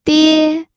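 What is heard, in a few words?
"die", Mandarin Chinese, first tone; pinyin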